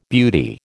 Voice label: "beauty", American